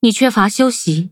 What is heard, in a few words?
defeat, zh-hant; Cookie Run